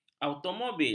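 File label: "automobil", Malay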